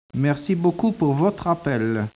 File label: speech, French